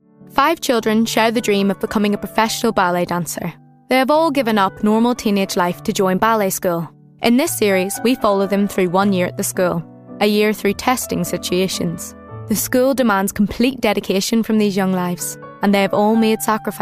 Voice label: Documentary

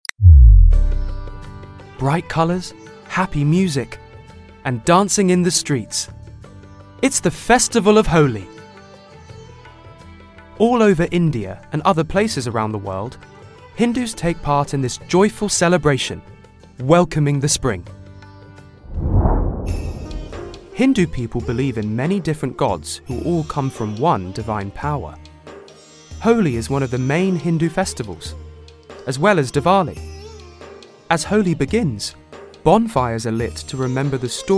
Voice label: Narrative

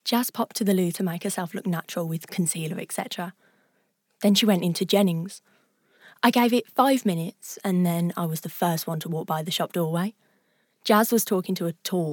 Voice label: Audiobook

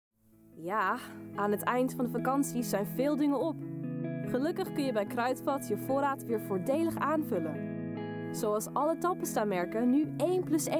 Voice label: Dutch

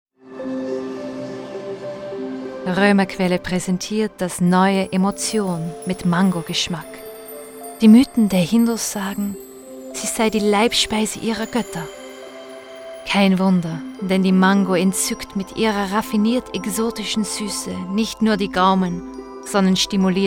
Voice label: German

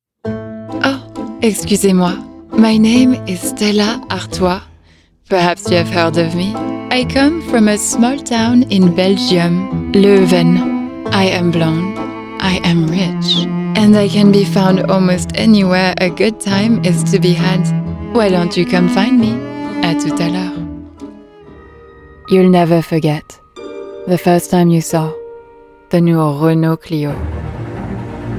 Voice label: English

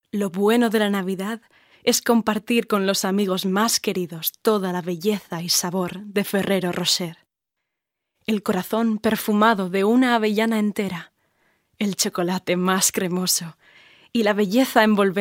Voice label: Spanish

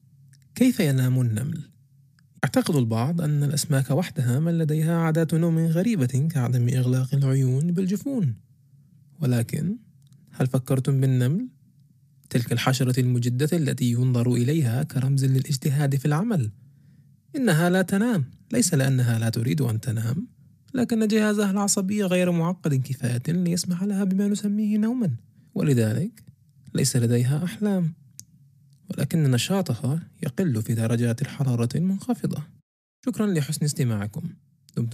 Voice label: Arabic

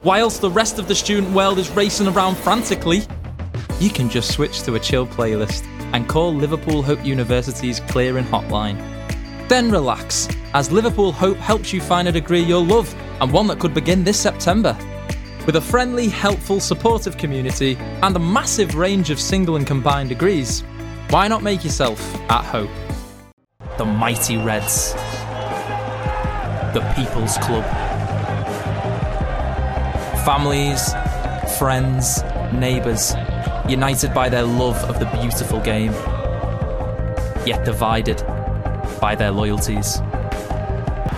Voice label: Commercials

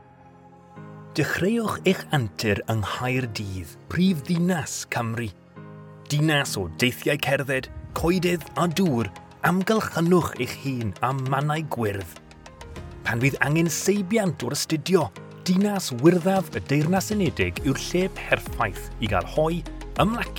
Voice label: Advert with Music; Cardiff University

